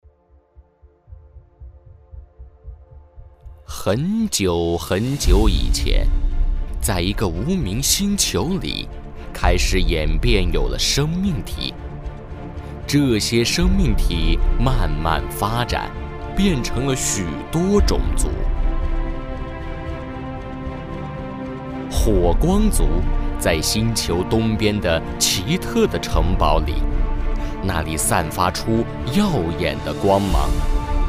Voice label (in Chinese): 男 游戏 磁性 神秘 娓娓道来; 火神传说